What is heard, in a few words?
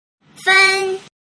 "fen", Mandarin